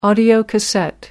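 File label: "audiocassette", British English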